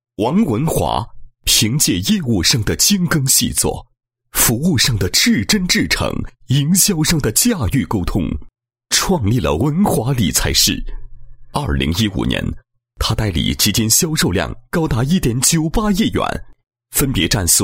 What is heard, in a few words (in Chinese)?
男 颁奖; 建行分行2015年度优秀颁奖